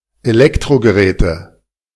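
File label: "Elektrogeräte", German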